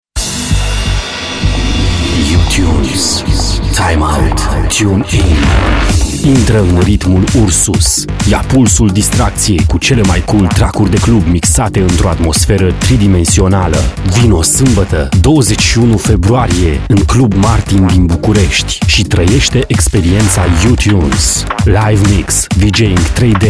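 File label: romanian male commercial; voice demos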